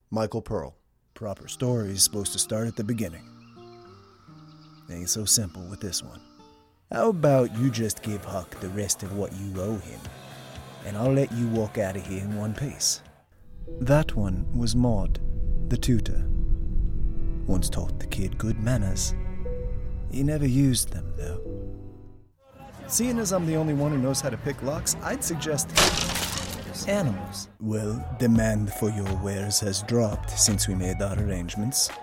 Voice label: Animation VO

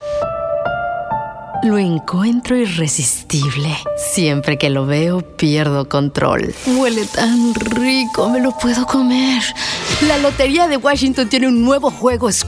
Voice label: Spanish

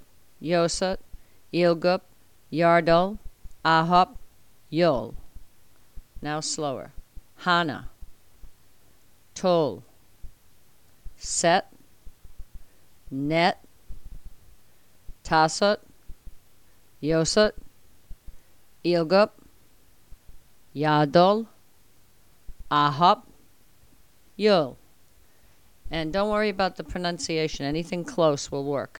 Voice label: Numbers In Korean

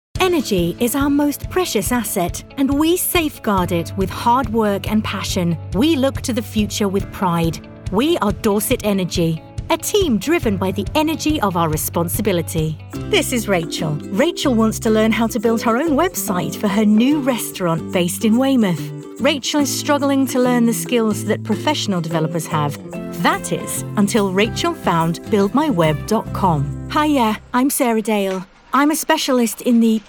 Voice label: corporate showreel

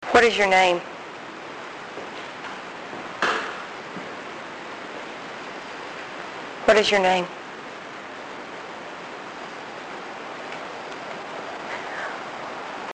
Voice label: saloon evp

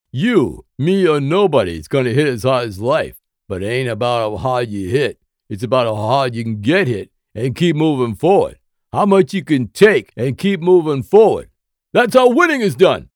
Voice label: STREET SPEECH; ROCKY

